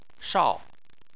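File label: "shao" tone 4, Chinese; Chinese Surnames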